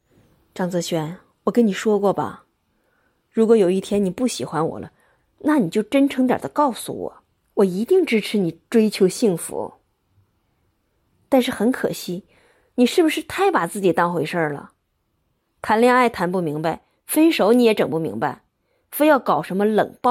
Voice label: 有声书

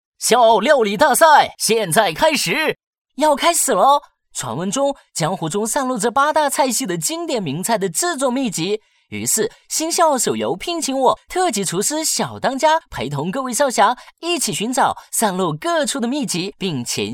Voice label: 台湾腔游戏类; 中华小当家新笑傲江湖

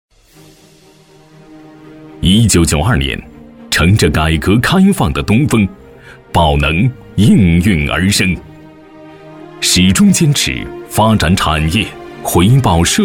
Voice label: 传统企业宣传; 宝能

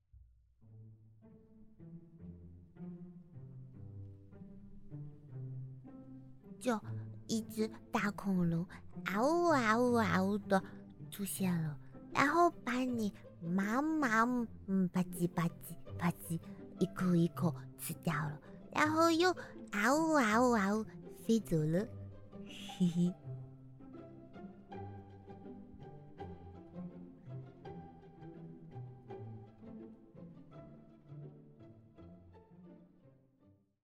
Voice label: 可爱样音

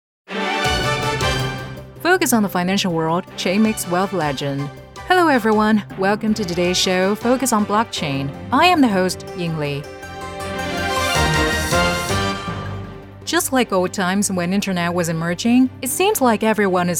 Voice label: 英文专题区块链电视节目

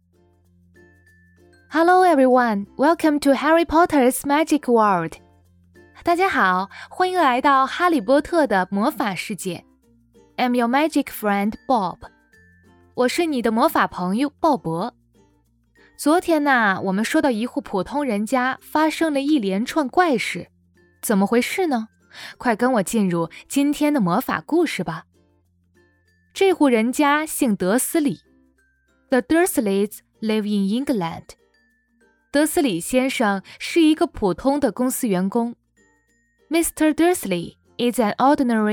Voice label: 中英文双语绘本

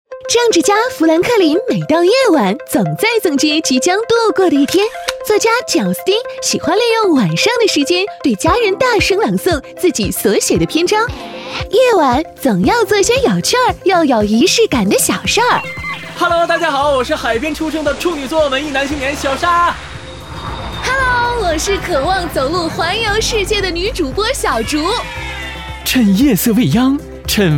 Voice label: 音乐加油站节目片头

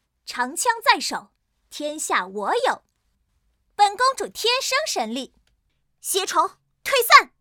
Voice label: 游戏少女傲娇公主

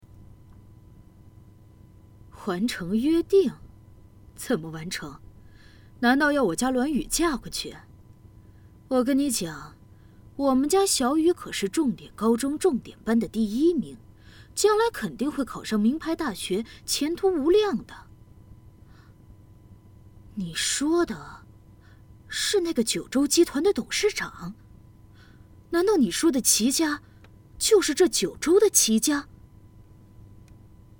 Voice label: 妈妈试音

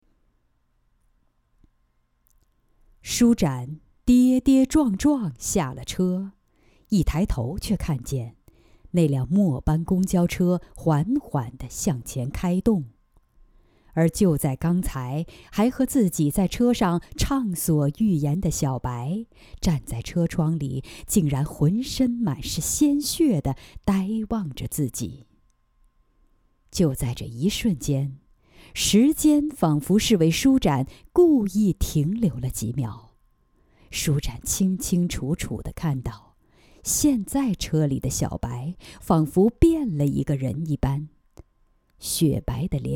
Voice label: 悬疑; 午夜手札

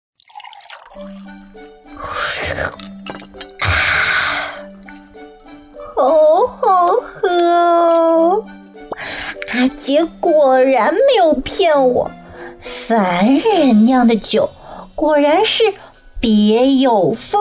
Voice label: 试音年轻活泼

